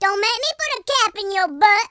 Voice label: "stupid", Gangsta; speech